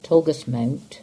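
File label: "Tolgus Mount" English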